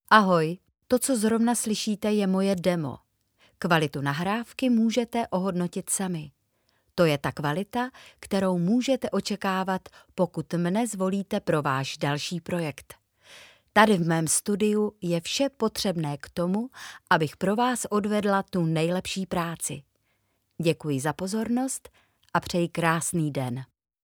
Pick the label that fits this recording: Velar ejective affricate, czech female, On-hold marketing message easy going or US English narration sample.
czech female